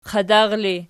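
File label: "qadar" ergative, Archi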